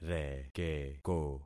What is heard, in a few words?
"rekeko", concat syllables; Affixing experiment